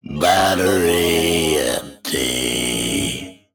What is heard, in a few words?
Male Mech